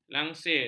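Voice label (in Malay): "langsir", Malay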